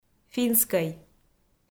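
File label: "финскӧй", Komi, female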